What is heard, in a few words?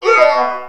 Male Death Robot; Mars Sortie